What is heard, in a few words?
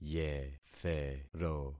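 "yethero", concatenated syllables; Affixing experiment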